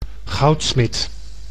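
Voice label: "goudsmit", Dutch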